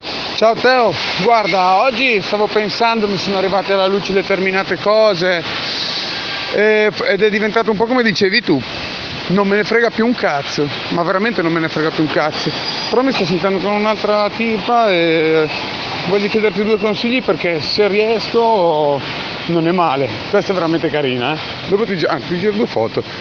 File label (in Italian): Testimonianza